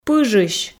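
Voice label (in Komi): "пыжысь", female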